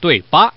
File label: Man